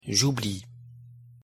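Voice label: "j'oublie", French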